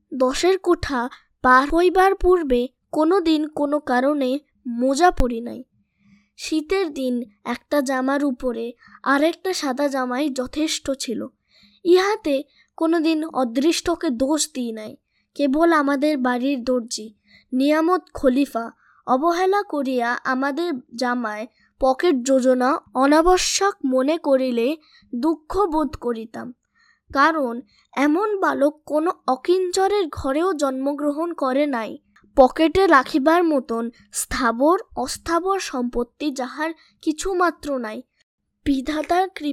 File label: bengali voice sample, child